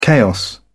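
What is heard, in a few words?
"chaos", British English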